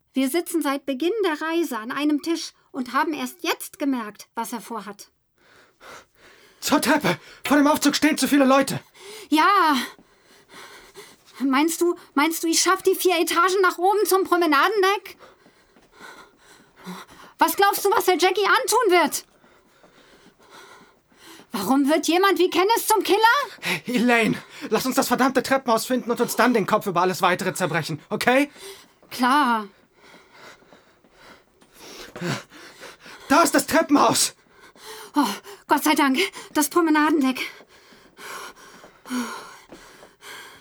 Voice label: Hoerspiel Dialog, German; Audio Drama (Hörspiel)

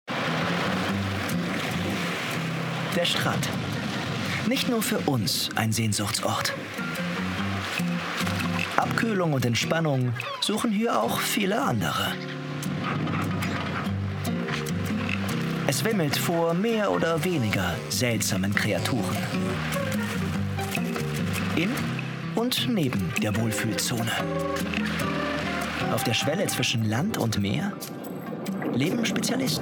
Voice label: Dokumentation Off; Der Stran

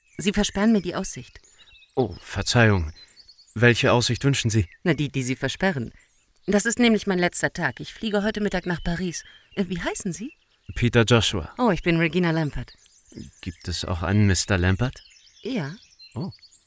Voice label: Synchron; Charade

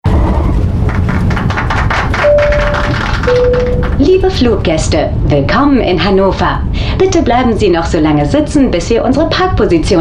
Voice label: Funk Flugbegleiterin; AOK

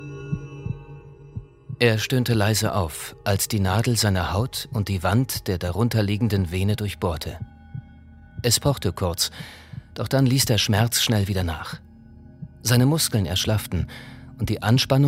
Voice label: Hörbuchprobe Thriller

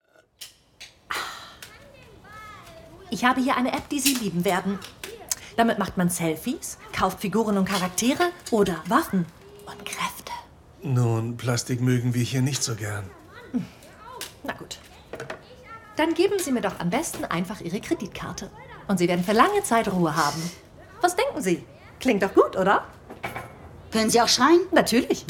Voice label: Synchron hell frech; LOFT VOICES

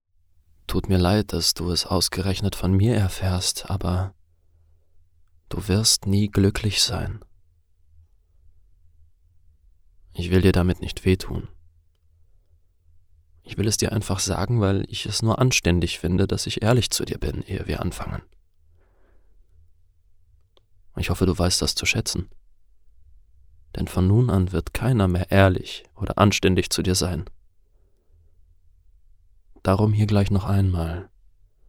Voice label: Hoerbuch melancholisch

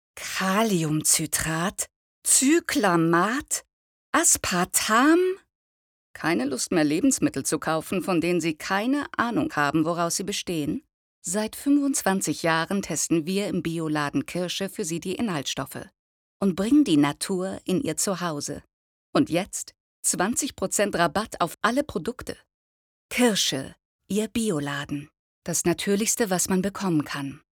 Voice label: Casting Werbung

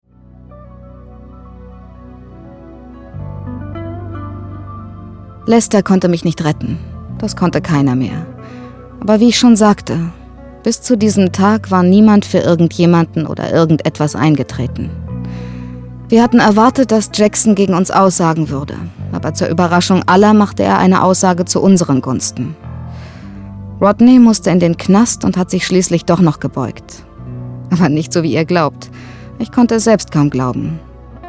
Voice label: synchron

